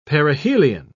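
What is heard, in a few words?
"perihelion", British English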